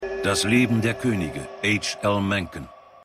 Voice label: Off Sprecher; HL Mencken; The Wire

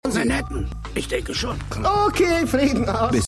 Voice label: Aelterer Autofahrer; Big Daddy

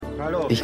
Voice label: Mann; X Factor

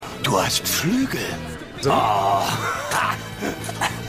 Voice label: Obdachloser; Lucifer

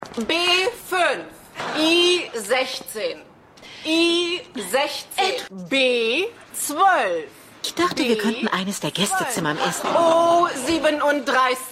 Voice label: Bingo Ansagerin

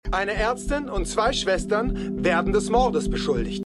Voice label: Nachrichtensprecher; Stimmproben; MEMORIAL HOSPITAL - DIE TAGE NACH HURRIKAN KATRINA (DF 2022); Five Days at Memorial

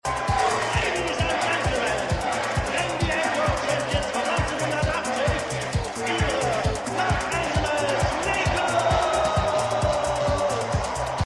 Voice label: Stadionsprecher; Winning Time

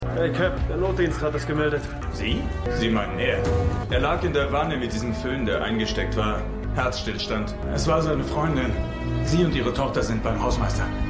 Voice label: Polizist, Stimmproben; LO SVU

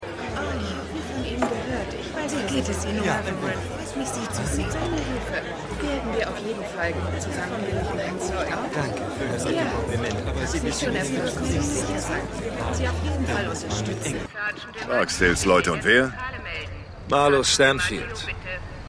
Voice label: 2 Frauen Funk; The Wire

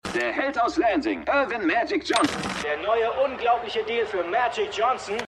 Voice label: TV Sprecher TV Sportmoderator; Winning Time

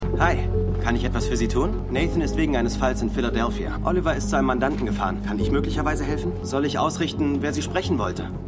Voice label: Junger Anwalt; Suits

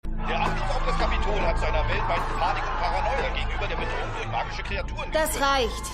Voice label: Nachrichtensprecher, Stimmproben

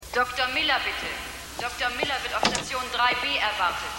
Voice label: Krankenhausdurchsage

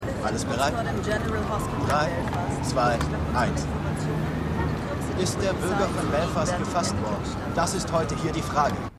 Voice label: Reporter; The Fall

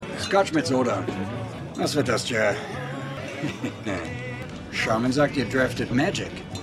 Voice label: Mann In Bar; Winning Time

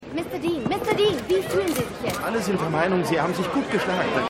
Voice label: Reporterin Reporter; Gaslit

